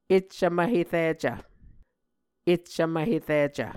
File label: "eccv mvhericv", muscogee; Mvskoke Words with Repeated Consonants; Onvkv Ohhvyayices; A Dictionary of Creek/Muskogee; SIL International